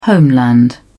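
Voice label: "homeland", British English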